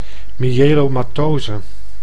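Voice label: "myelomatose", Dutch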